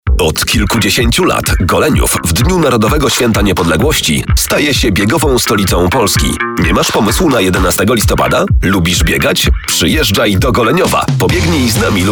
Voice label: spot